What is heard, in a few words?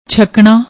"chhaknna", Punjabi